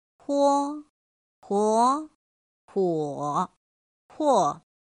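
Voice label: pō pó pǒ pò, Mandarin Chinese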